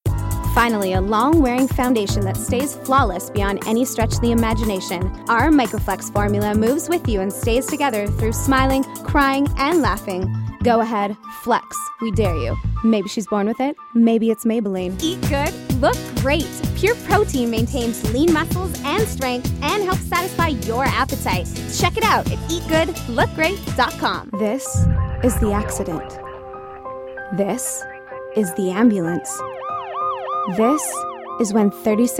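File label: commercial voiceovers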